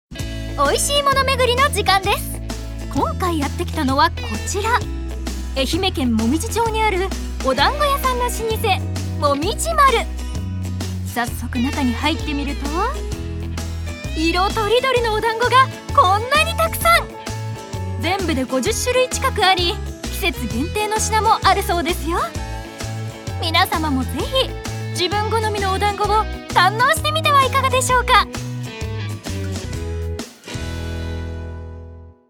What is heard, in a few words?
narration; ナレーション